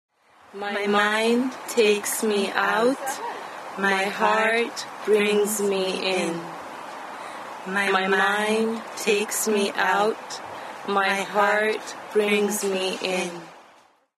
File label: poem